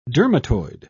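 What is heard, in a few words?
"dermatoid", British English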